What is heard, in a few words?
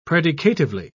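"predicatively", English, British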